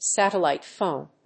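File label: "satellite phone", English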